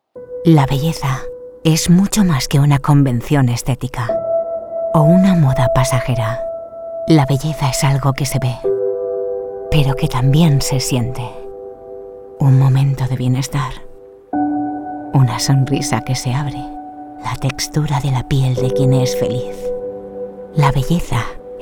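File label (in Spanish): otros España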